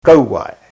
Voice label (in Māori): "kauae", te reo